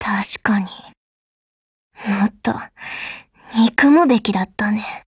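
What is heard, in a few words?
BREAK, Japanese; GF Alarm; UMP45Mod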